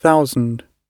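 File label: "thousand", British English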